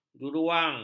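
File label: "juruwang", Malay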